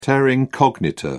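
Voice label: "terra incognita", English, British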